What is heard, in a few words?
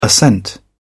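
"ascent", British English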